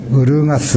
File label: "urugasu", minamiyamagata